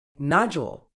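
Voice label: "nodule", medical English, British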